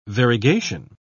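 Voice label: "variegation", British English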